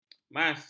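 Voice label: "mas", Malay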